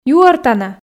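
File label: "юӧртана", Komi, female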